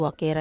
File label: "wakera", Wamesa